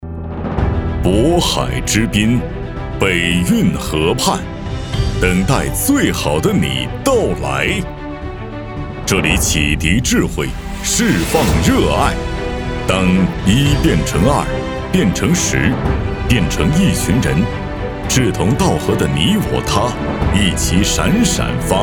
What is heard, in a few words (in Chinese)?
男, 专题 学校形象 招生片